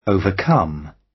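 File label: "overcome", British; Study, education, research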